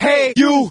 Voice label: "hey you", voice; ooh ahh